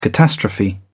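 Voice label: "catastrophe", British English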